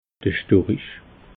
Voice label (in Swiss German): "storich", alsace